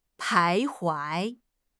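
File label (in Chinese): "徘徊", Chinese; HSK6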